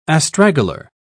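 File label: "astragalar", British English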